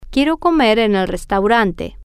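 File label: I want to eat at the restaurant, Spanish, female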